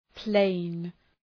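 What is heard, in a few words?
"plain", English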